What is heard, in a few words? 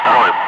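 Russian bright; Ka-50; Wingman; DCS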